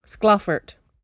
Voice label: "sclaffert", Cromarty; [sclAHf-ferd]; Word; Threat